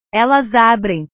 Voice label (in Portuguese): "elas abrem", portugues; verbos